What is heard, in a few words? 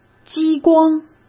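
"激光", Mandarin Chinese; chinese flashcards; hsk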